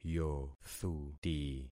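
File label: "yothuti", concatenated syllables; Affixing experiment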